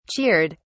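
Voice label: "cheered", British English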